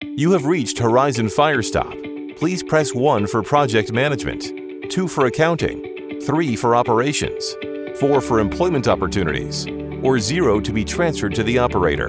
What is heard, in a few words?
english american ivr